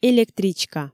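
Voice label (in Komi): "электричка", Komi, female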